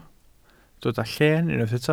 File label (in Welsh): "lleen" Welsh